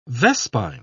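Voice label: "vespine", British English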